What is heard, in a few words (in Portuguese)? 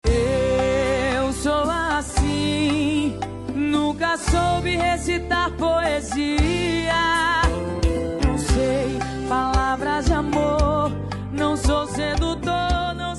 dn esposa masc, dia dos namorados para esposa voz masculina